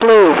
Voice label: "blue", radio, female